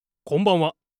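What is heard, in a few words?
"konbanwa", Japanese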